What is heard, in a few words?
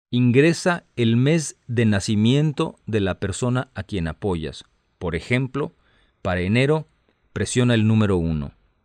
Spanish, hotline